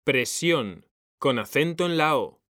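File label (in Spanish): "PRESIÓN", Spanish; mekanta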